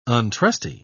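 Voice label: "untrusty", English, British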